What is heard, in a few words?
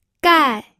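"gai", pinyin, fourth tone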